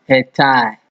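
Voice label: "headtie", Nigerian Pidgin, male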